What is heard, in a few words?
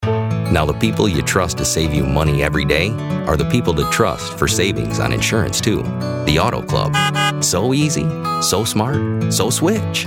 Commercial Genuine Guy next door Friendly relatable; Commercial Demo